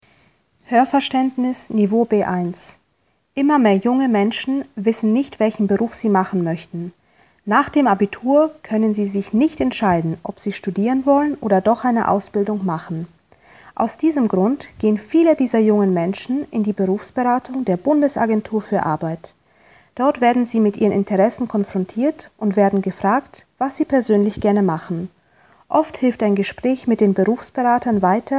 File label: German listening level B1